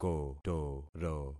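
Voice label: "kotoro", concatenated syllables; Affixing experiment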